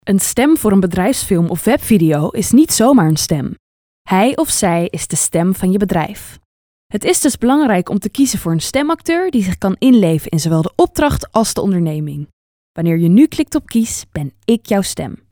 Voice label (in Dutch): BEDRIJFSFILM